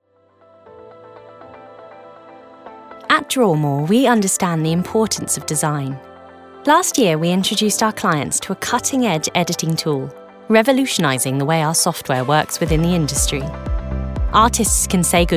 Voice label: Corporate; home studios